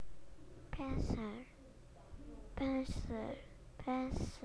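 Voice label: "pencil", spoken word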